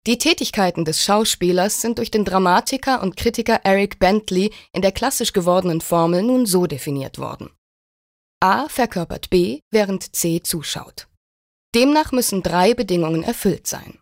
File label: Neutral; Schauspieler